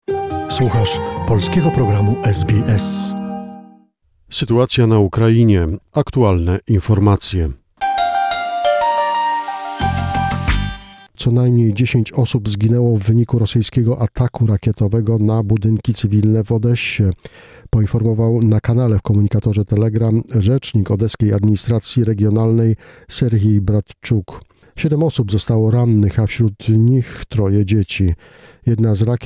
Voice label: polish